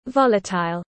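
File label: "volatile", English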